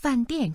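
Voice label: "fan dian", Chinese, tones 4 and 4, female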